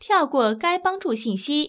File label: skip instructions, zh-cn sounds ivr; BigBlueButton; freeswitch